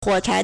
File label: "huo3 chai2", Chinese